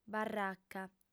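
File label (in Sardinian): "barraca", female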